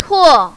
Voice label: "tuo4", mandarin, female